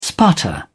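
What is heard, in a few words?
"sputter", English, British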